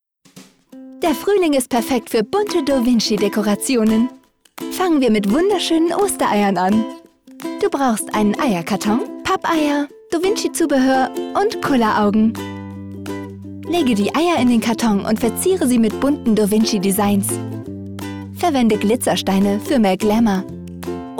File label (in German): Erklaerfilm; Hasbro Doh Vinci